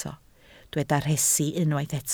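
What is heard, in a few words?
"rhesi" Welsh, spoken word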